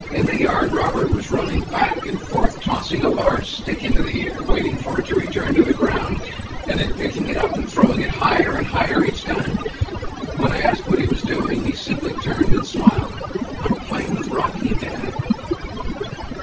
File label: male noisy